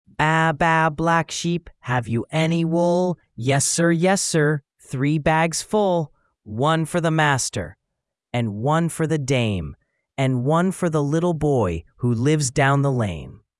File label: male US; Baa Baa Black Sheep; ttsmp3